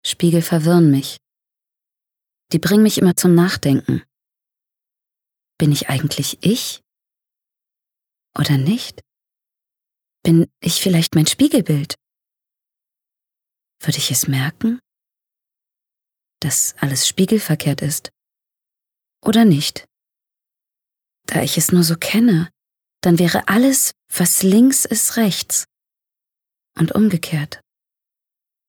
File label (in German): Innerer Monolog; LOFT VOICES